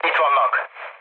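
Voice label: enemy detected, mood low, Hungarian; War Thunder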